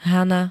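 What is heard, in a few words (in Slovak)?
"hana", Slovak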